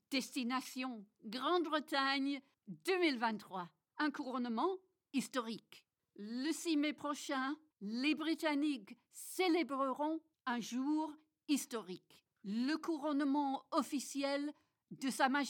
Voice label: Parisian French slight English accent tourism commercial